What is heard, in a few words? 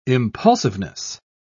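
"impulsiveness", British English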